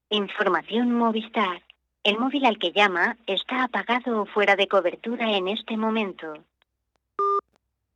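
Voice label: Answering Machine In Spanish Contestador Automático En Español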